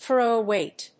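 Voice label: "throw weight", English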